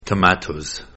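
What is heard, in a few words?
"Tomatoes", English plural; pronunciation of plural s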